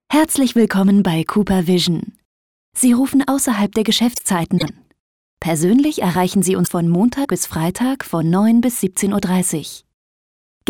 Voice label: telefonanlage deutsch

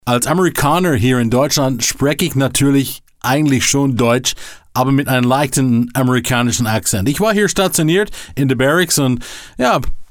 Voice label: deutsch usa akzent

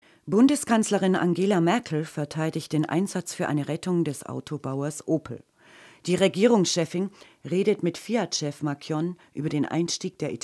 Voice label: nachrichten

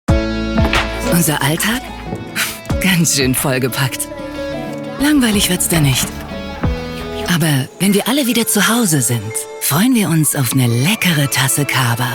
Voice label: tv spot; kaba

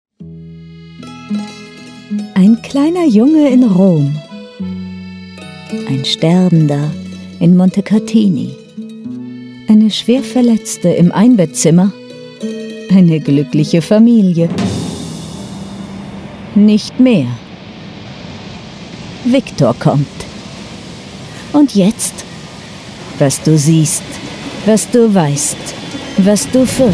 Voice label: buchtrailer; eisinsel

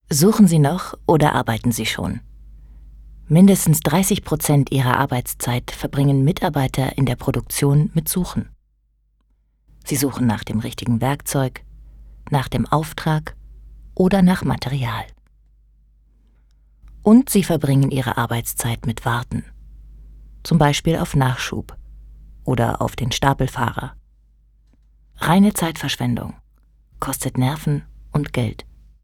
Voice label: E-Learning Probe

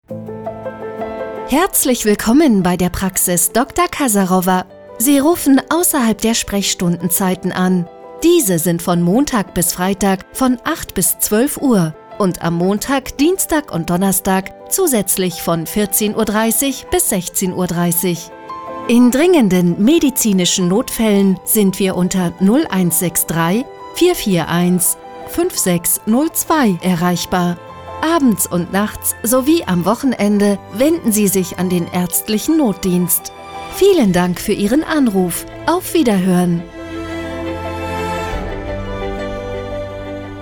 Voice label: Telefonansage Telefonsounds; dr kasarova

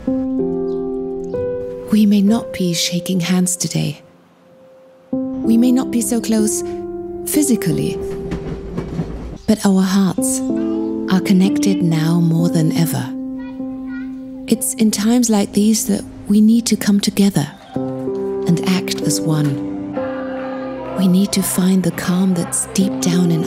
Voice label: eng imagefilm; expo2020

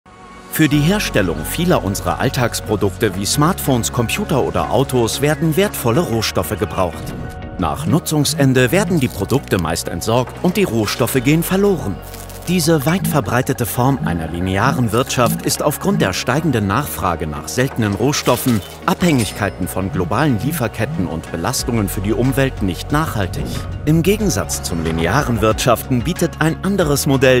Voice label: erklarfilm kreislaufwirtschaft; bmuv

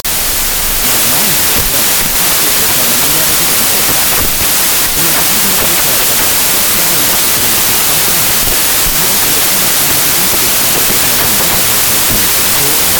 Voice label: praesentation ferienwohnung werblich

